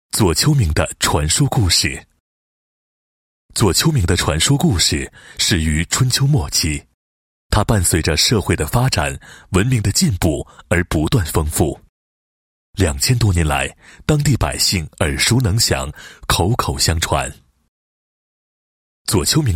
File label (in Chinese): 男 解说词 电视片解说词, 配音; 左丘明的传说故事 电视片解说词